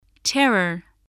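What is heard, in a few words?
"terror", English word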